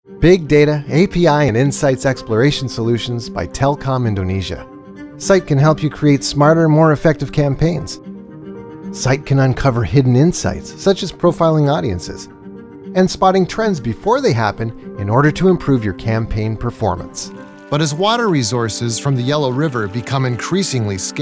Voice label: Narration